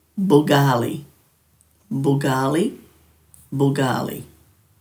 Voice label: "bugaali", Dhurga